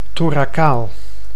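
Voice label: "thoracaal", Dutch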